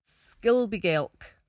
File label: "skilbygelk", Cromarty; [sKIL-bee-gelk]; worthless, good for nothing; Word